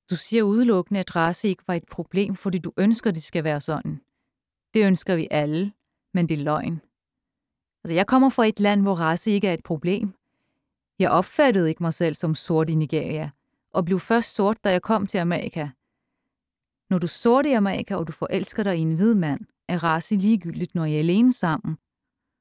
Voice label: Danish Narrative

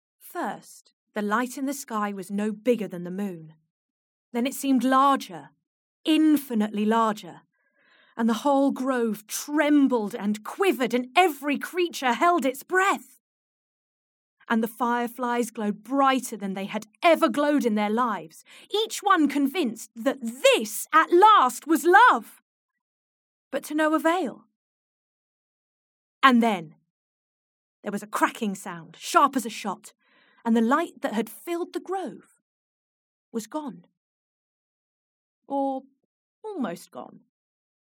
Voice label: Narrative

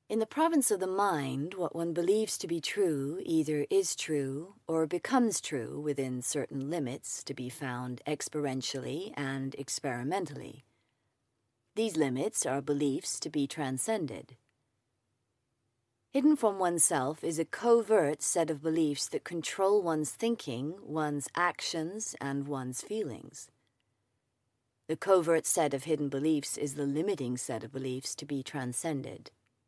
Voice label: US Narrative

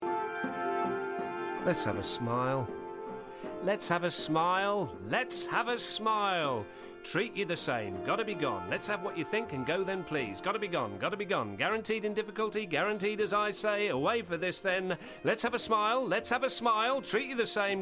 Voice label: Drama Reel

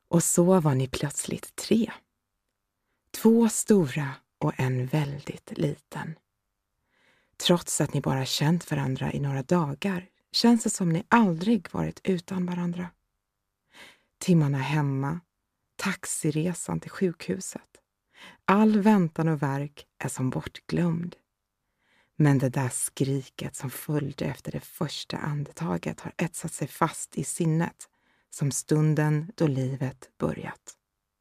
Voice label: Swedish Corporate

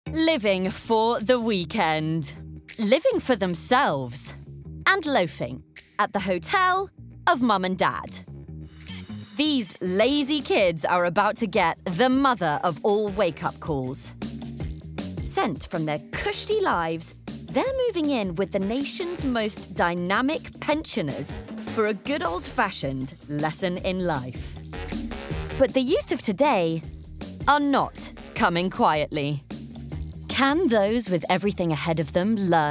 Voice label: Narrative

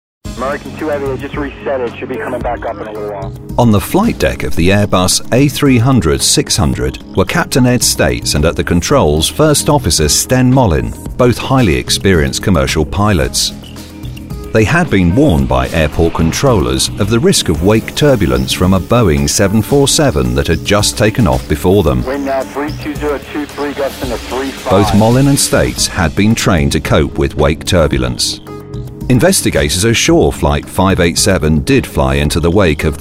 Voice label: Narrative

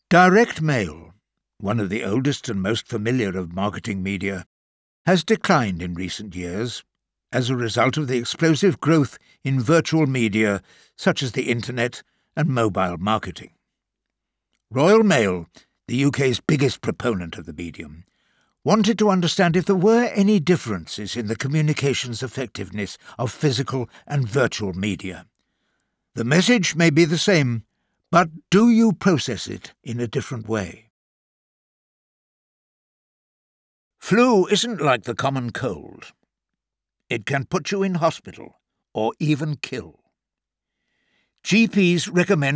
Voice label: CORPORATE

